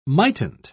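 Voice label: "mightn't", British English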